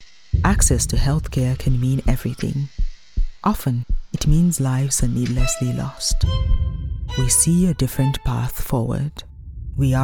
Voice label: english commercial, english female voices british accent